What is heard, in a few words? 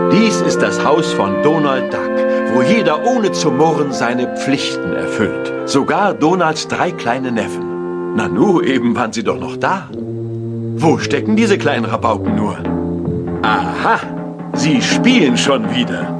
narr